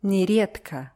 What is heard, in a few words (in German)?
"neredko", russische